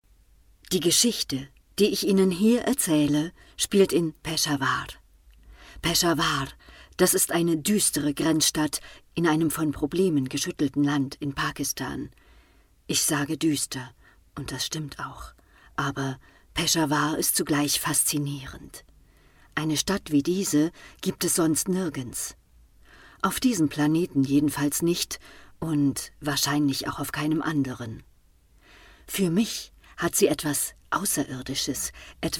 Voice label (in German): hoerbuch